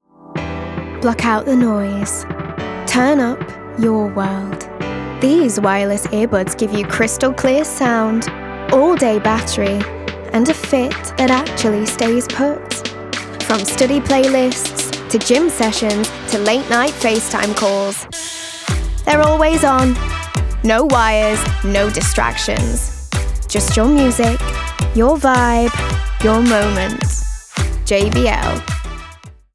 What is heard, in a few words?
Manchester, Commercial, Bright, Upbeat; JBL Headphones